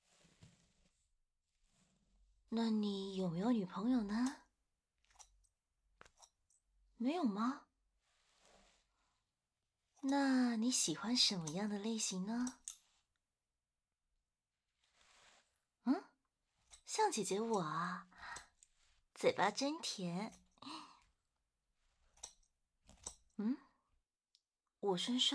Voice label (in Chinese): 修剪時的閒聊, ASMR